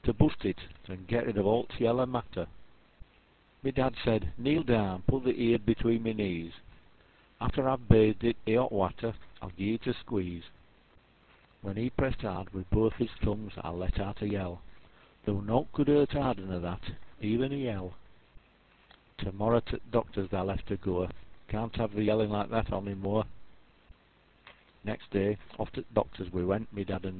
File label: a pain int neck, yorkshire dialect